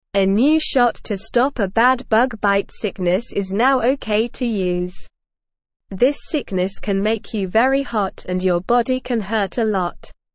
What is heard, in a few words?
English Newsroom Beginner SLOW Reading; New shot to stop bad bug bite sickness